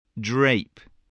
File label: "drape", spelling